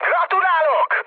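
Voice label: player killed enemy, mood med, Hungarian; War Thunder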